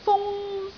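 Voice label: "fung1", Cantonese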